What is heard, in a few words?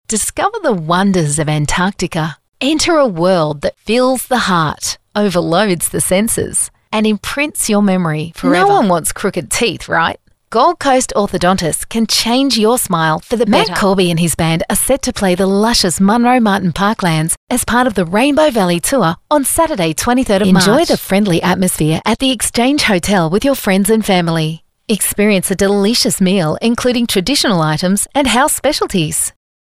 Soft Sell